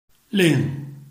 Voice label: "ling4", Chinese